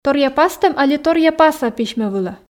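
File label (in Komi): Komi, торъя пастӧм либӧ торъя паса письмӧ вылӧ, female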